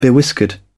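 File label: "bewhiskered", British English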